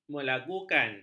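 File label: "melagukan", Malay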